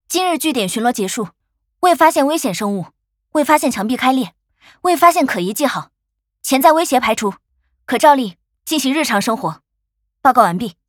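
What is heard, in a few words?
voice chinese welcome; Rhythm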